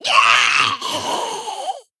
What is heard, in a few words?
Female Geist Death